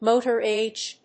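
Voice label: "motorage", English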